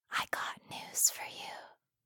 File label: asmr girl i got news for you; asmr ringtones